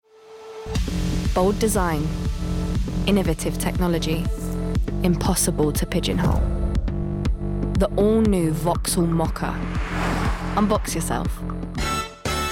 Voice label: Commercials